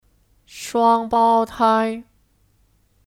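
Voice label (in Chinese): "双胞胎", Mandarin Chinese; Shuāngbāotāi (Kata benda) : Kembar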